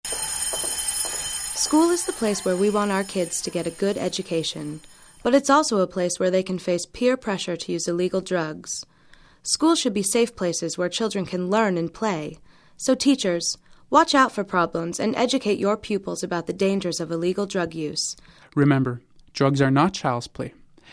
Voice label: English